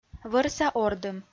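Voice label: "вӧрса ордым", Komi, female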